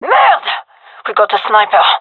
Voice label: sees sniper, gendarmerie fem; vo